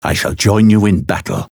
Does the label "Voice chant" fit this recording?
Yes